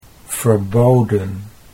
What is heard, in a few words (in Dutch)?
"verboden", Dutch